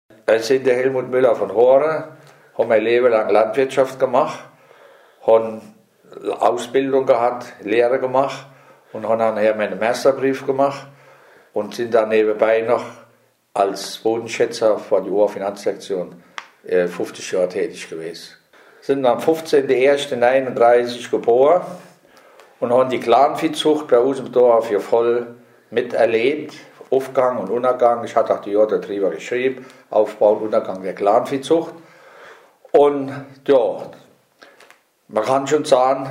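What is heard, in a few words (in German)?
vorstellung, Hunsrück; Horn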